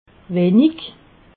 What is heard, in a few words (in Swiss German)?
"wenig", alsace